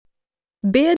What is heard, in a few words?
"bid", English